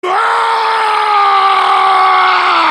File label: voice over; prophet velen